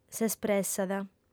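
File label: "s'espressat", female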